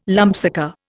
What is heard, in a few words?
"lumpsucker", Indian